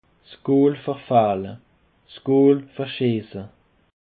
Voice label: "goolverfehle", Alsace

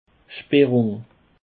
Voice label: "schperrung", Alsace

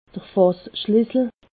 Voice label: "fasschlisser", alsace

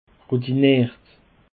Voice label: "routinert", Alsace

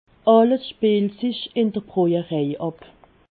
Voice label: "spielt", alsace

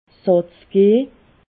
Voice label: "satz", alsace

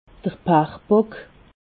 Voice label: "pachbock", alsace

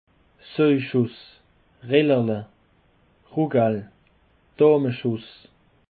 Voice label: "soischuss", Alsace

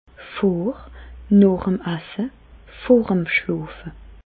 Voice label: "voremesse", Bas Rhin; medecine; avant après le repas au coucher

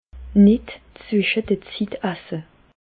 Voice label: "zwische", Bas Rhin; medecine

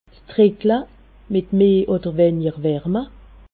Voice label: "dricklewarme", alsace

